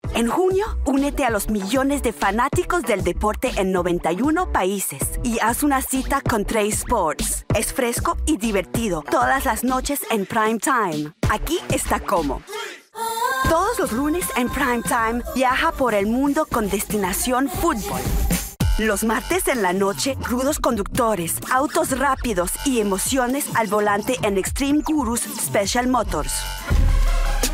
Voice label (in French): Voix Espagnole; Trace Sports Programme